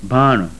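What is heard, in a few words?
voiced aspirated bilabial, sindhi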